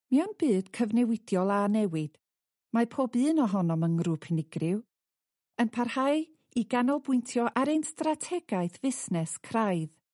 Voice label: Welsh F Corporate; voice-over